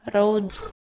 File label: "h1reudh" PIE